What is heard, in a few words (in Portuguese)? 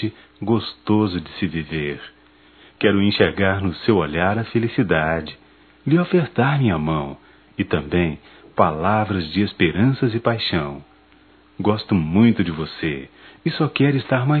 Natal romantico m; masc; Telemensagens 2017; Natal & Ano Novo